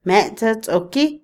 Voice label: culpable, Otomí, mujer; INALI

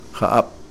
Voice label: lluvia, Kakchikel

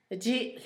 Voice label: comal, Zapoteco, Asunción Ocotlan; INALI; Haspelmath

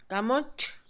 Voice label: campo de cultivo, Mixe, Mujer; INALI

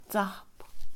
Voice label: cielo, Mixe, Mujer; Religión; INALI

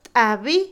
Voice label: pala, Otomí, mujer; INALI

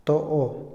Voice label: "alguien", Otomí, hombre; INALI

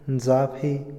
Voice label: escopeta, Otomí, hombre; INALI

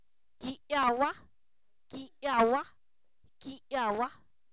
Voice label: cal, Zapoteco, san miguel albarradas; objetos inanimados

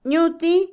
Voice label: "enseñar", Otomí, mujer; Cognición; Vocabulary; INALI

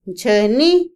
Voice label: rápido, Otomí, mujer; INALI

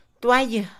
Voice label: toalla, Mixe, Mujer; Ropa; INALI

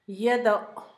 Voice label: iglesia, Zapoteco, Asunción Ocotlan; Objetos; INALI

